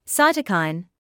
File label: "cytokine", English